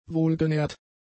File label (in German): "wohlgenährt", German; adjectives